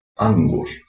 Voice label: "angos", Ancient Greek